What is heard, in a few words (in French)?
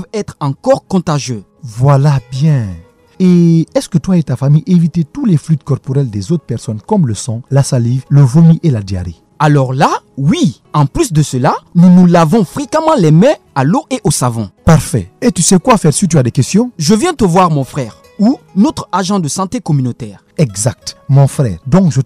SPOT EBOLA 2 HOMMES FRANCAIS